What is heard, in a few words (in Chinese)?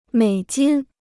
"美金", Chinese